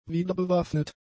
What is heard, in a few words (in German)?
"wiederbewaffnet", German; adjectives